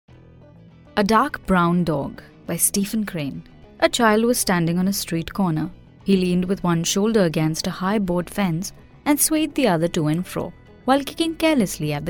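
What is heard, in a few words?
Hindi English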